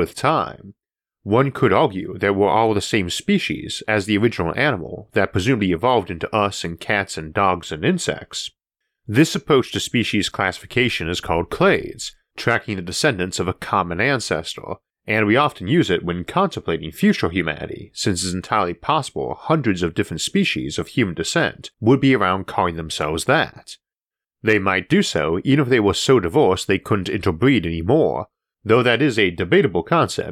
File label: genetic divergence civilization narration only; isaac arthur